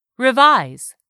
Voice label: "REVISE", english; 3000 words